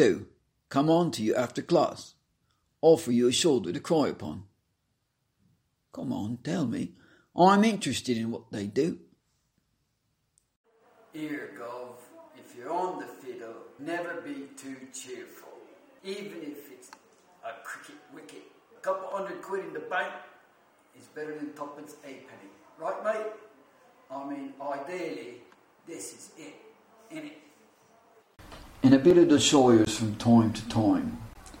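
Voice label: UK English British Posh Cockney Shires Aussie Irish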